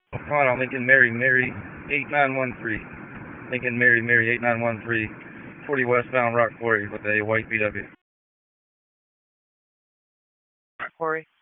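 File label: Sheriff's Office Dispatch; WSO DISP 1; wake; p25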